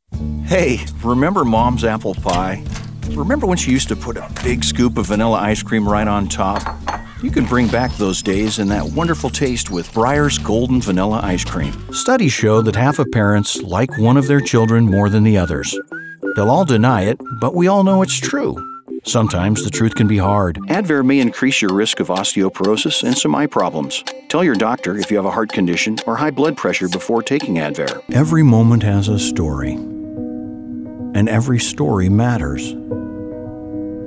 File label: Commercial